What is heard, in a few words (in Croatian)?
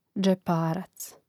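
"dzeparac", Hrvatski; mreznik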